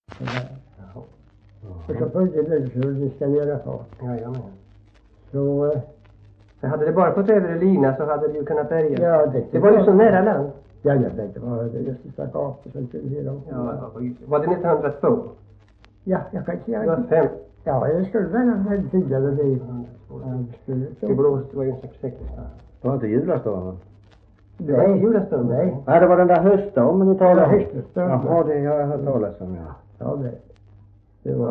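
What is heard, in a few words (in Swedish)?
Värö Halland, dialekter; Folkminnen; Svenska sagor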